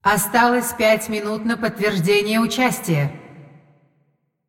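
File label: announcer female tournaments lockin 10min, hu_hu; lockin 5min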